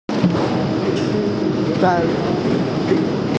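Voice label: "chi1fan4", Mandarin Chinese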